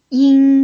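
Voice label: "yin1", Mandarin Chinese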